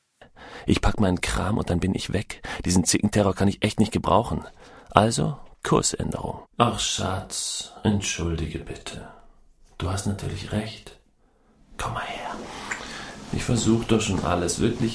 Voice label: hoerspiel liebe; LOFT VOICES

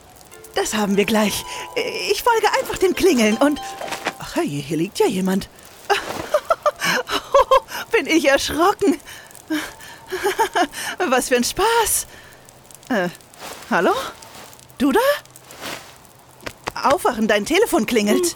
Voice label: Hoerspiel; Elbthriller

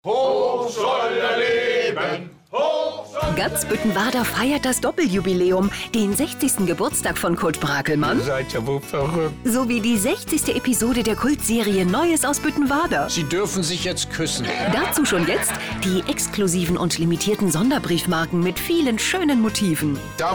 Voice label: briefmarkenspot; buettenwarder

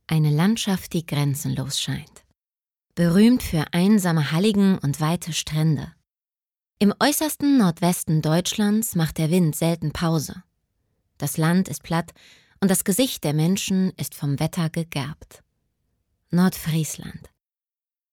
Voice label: Doku; Wildes deutschland

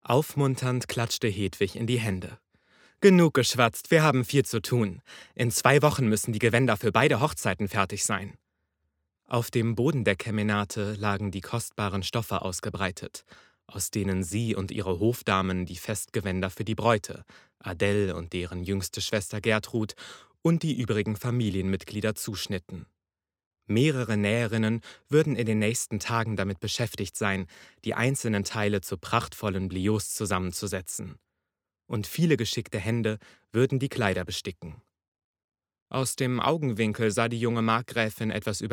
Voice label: Hoerbuch; LOFT VOICES

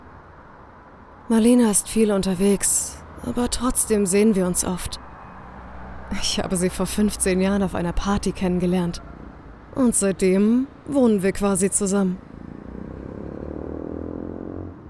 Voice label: Gedankenstimme

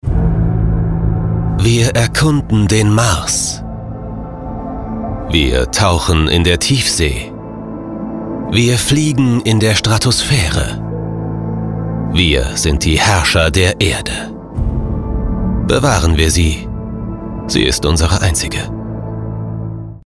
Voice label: Sprecherdemo Umwelt

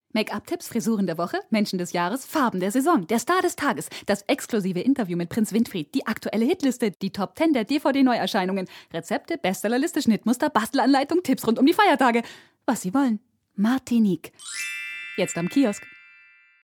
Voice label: werbung frauenmagazin

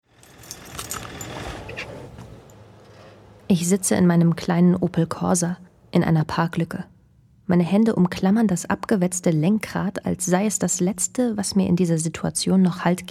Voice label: Hoerspiel

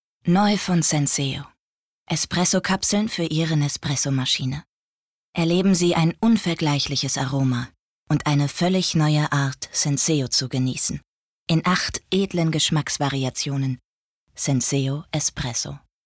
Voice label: Werbung

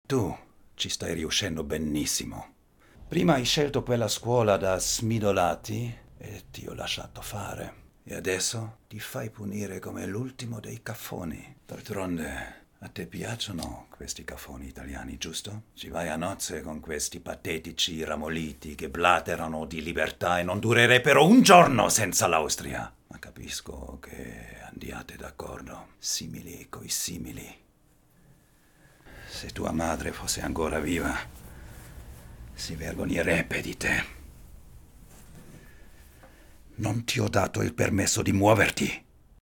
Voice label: Italienisch

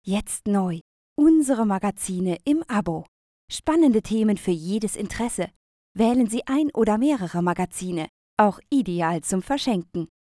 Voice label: Werbebeispiel Unterhaltung

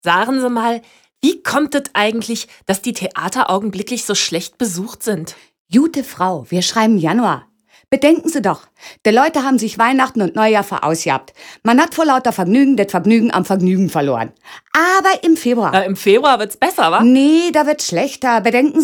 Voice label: dialekt

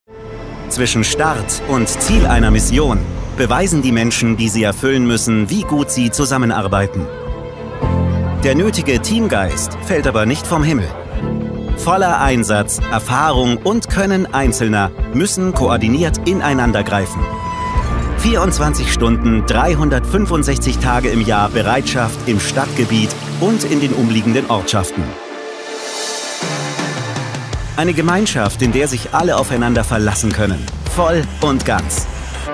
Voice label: Imagefilm serioes leicht cool respektvoll abenteuerlich

